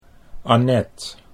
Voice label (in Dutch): "Annet", Dutch